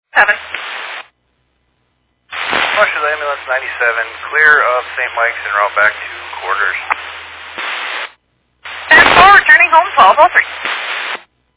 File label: Fire Dispatch